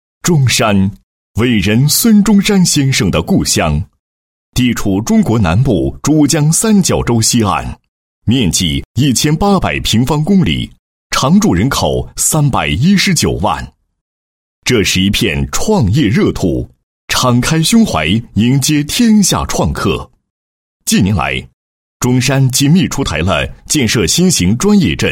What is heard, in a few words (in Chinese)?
男80 解说 招商引资 招才引智洽谈会解说, 国语男声配音; 央视农广